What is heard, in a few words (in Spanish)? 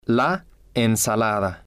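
"la ensalada", spanish, male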